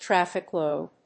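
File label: "traffic load", English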